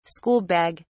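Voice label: "school bag", British English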